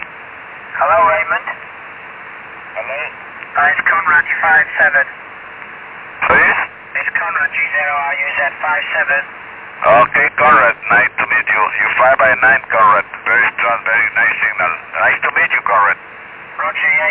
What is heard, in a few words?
ssb, 6M audio; 50Mhz; cycle23